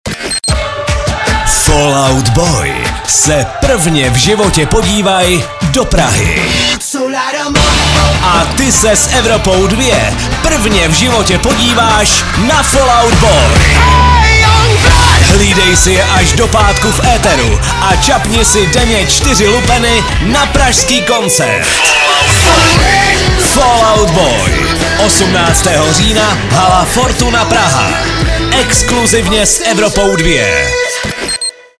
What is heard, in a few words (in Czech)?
liner soutez; evropa2